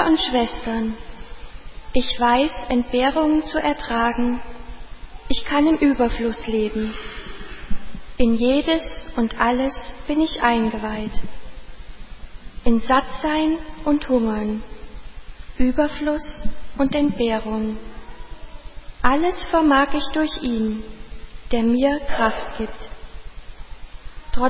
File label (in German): lesung